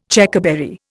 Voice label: "Checkerberry", Indian